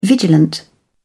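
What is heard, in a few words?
"vigilant", British English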